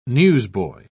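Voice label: "newsboy", British English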